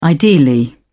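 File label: "ideally", British English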